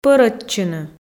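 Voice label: "пӧрӧдчыны", Komi, female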